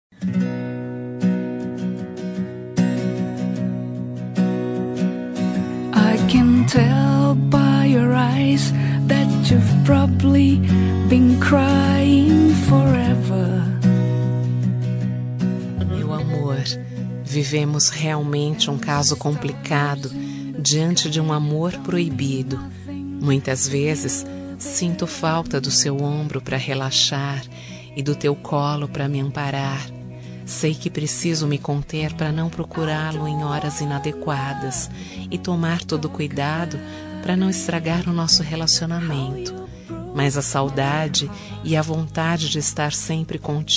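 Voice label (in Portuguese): romântica amante female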